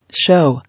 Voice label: "Show", English

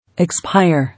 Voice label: "Expire", English

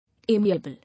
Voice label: "Amiable", English

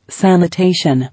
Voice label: "Sanitation", English

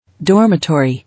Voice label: "Dormitory", English

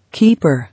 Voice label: "Keeper", English

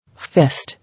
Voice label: "Fist", English

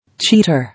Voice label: "Cheater", English

